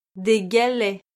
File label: "des galets", French